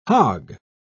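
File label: "hogg", British English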